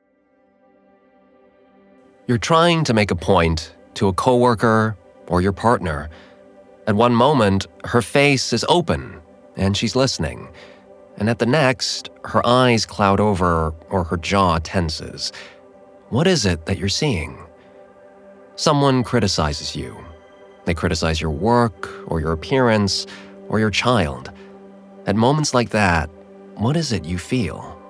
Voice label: Self Help Spiritual; Hooked

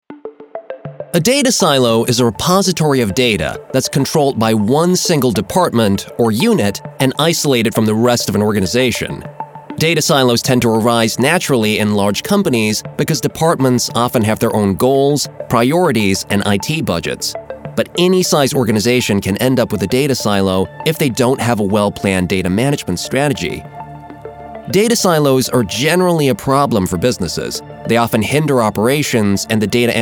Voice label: Professional Corporate; Data Silo

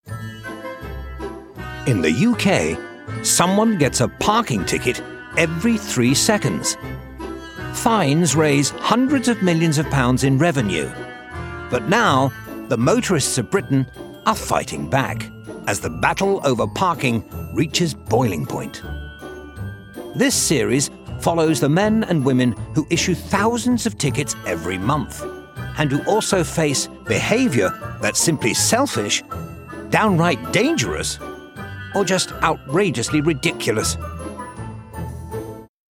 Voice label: Documentary

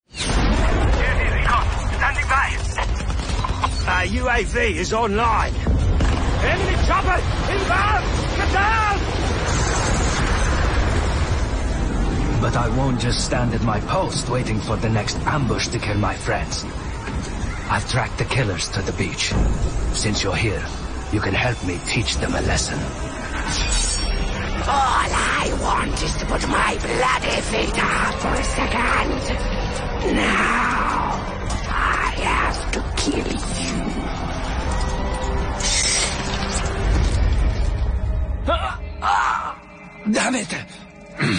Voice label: game reel; Commercial Reel

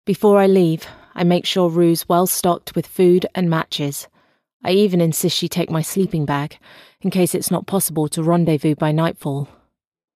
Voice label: Audiobook; TheHungerGames

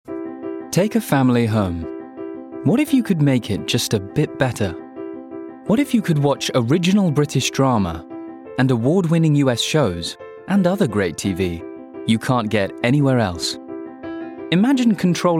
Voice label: Voice Reel Commercial; Sky

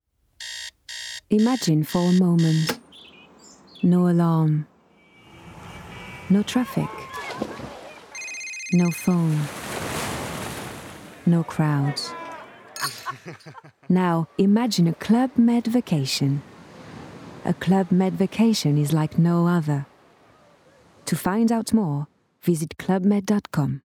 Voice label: VO Reel; Club Med

